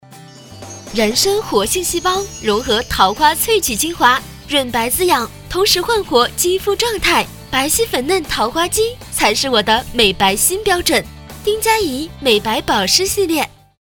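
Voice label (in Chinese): 广告青春活力化妆品; 丁家宜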